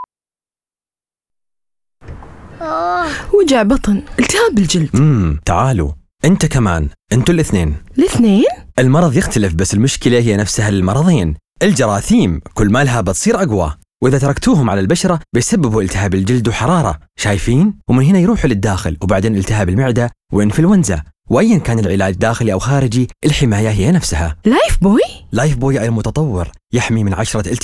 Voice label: Lifebuoy, Saudi